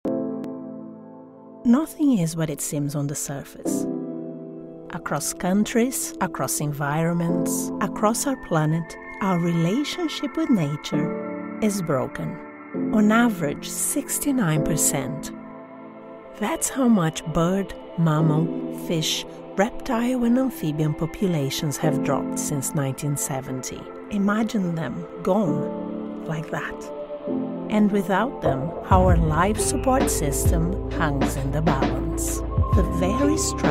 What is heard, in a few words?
english international narration